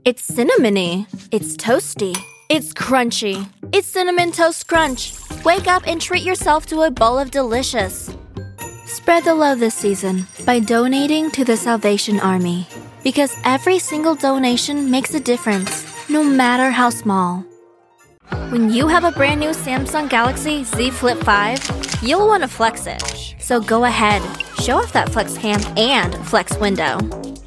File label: Commercial